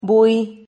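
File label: "bui", Spanish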